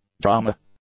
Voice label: "drama", English; nouns